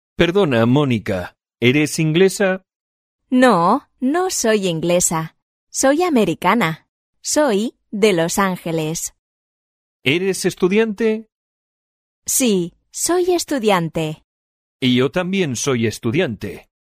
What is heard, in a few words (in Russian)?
urok dialog